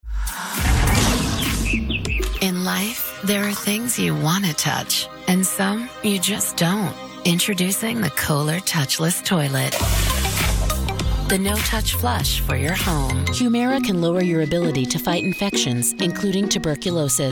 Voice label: commercial